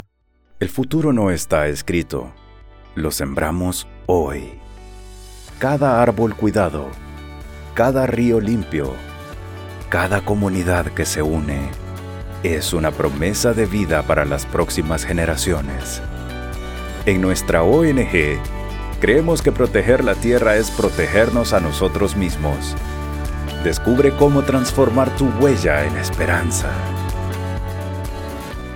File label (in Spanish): Corporativo; Medioambiente y sostenibilidad